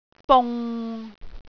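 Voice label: "bung6", Cantonese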